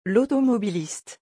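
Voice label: "l automobiliste", French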